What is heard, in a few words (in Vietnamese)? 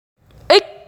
"Ich", Tiếng Việt